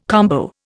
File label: "combo", Indian